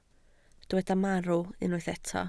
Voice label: "marw", Welsh